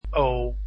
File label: "o", Dutch alphabet